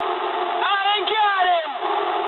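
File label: aircraft destroyed mood low, speech English; War Thunder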